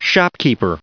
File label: "shopkeeper", en-us